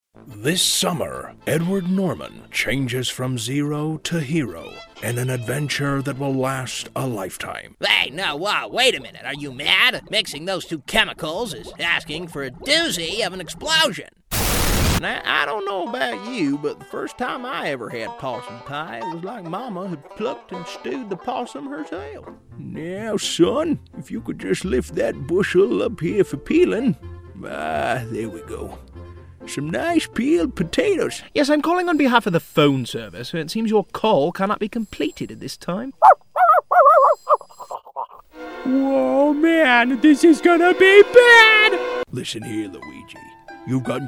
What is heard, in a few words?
animation vo